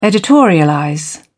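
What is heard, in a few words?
"editorialize", British English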